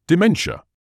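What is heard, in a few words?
"dementia", British English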